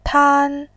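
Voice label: "tan", Mandarin Chinese, first tone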